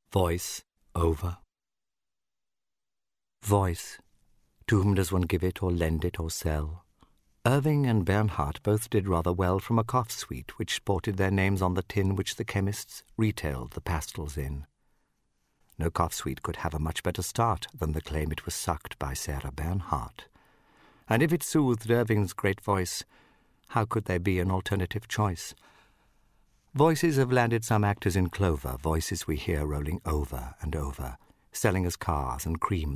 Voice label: voiceover